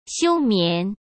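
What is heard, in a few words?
"休眠", Mandarin Chinese; chinese flashcards; hsk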